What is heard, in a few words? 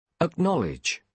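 "acknowledge", British English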